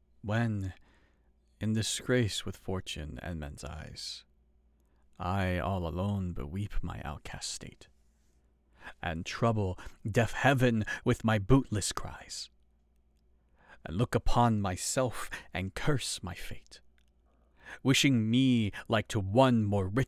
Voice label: Narration Samples

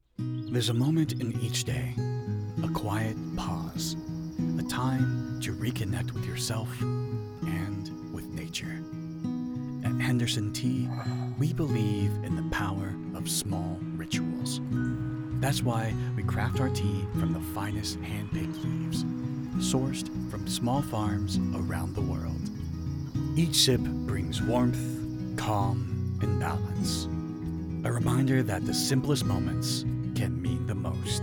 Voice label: Commerical Sample Tea

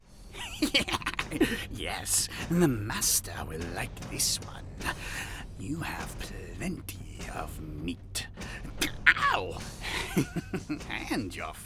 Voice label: Video Game Samples goblin

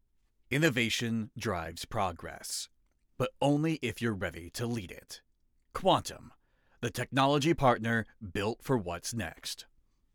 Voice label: Commercial sample Authoritative, Voice Actor

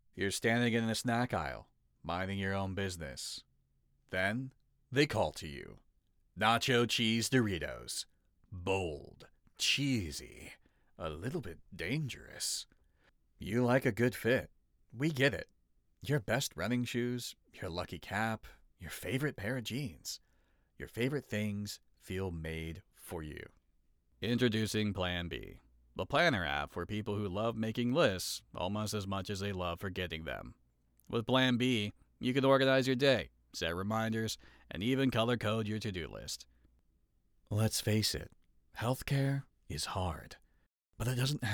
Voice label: All Commercial Samples

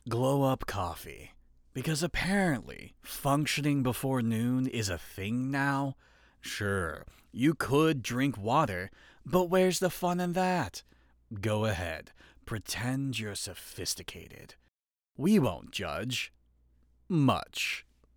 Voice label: Commercial Wry

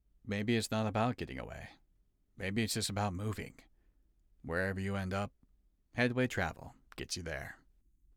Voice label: Commercial sample Detached, Voice Actor

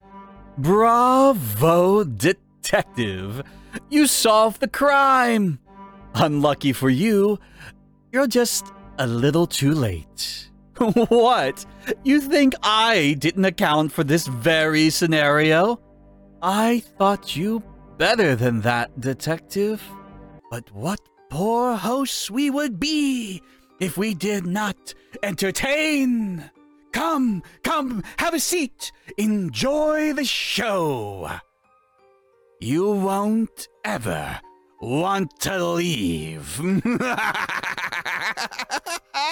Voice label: Villain VO Samples

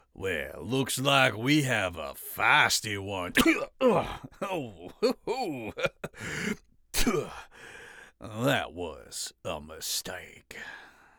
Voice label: Video Game Sample southern villain

